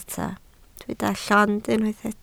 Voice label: "llond", Welsh